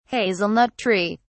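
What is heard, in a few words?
"hazelnut tree", British English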